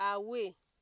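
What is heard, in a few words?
"away", Nigerian Pidgin, female; pcm-ng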